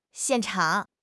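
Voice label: "xian4 chang3", Chinese; 汉语口语新时代-初级下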